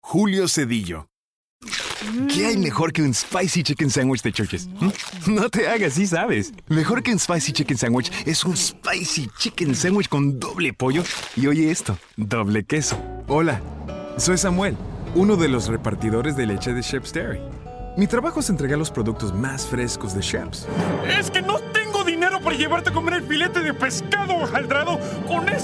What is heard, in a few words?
spanish; Spanish Commercial Spots